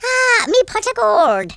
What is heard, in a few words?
"collect", Irish; speech